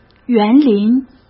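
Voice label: "园林", Mandarin Chinese; hsk; chinese flashcards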